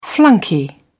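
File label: "flunky", British English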